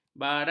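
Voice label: "baras", Malay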